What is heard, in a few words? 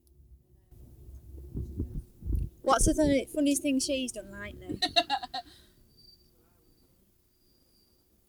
White women 20 s Birmingham England; europe; british